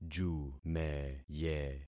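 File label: "jumeye", concatenated syllables; Affixing experiment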